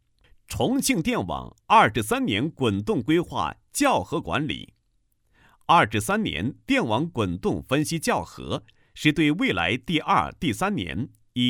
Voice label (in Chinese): 男 国企解说 配音; 重庆电网年滚动规划校核管理